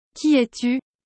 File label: Qui es tu french